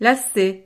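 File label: "lacet", French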